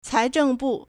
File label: "cai2zheng4bu4", Chinese